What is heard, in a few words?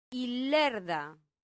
"ilerda", latin; santillana